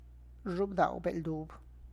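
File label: edible purple corn, Tlacochahuaya Zapotec; zhub daw be’ldub; (Spanish) maíz morado comestible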